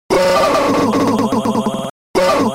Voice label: stutter